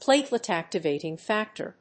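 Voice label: "Platelet-Activating Factor", English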